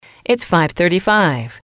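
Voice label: "it's five thirty five", English, female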